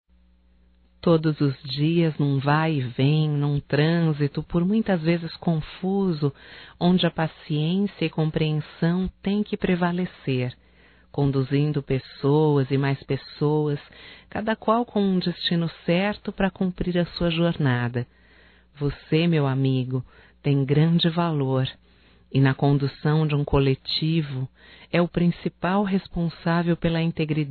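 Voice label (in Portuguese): HOMENAGEM AO MOTORISTA DE ôNIBUS (Feminino), Telemensagens; Homenagens; Telemensagens 2017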